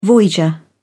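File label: "voyager", British English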